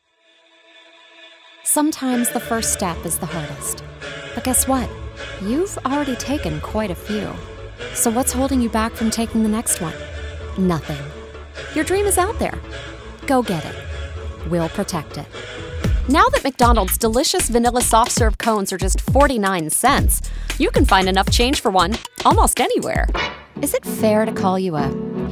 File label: Voiceover